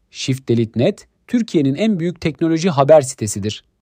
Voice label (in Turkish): yapay zeka seslendirme; yapay zeka seslendirme nasil yapilir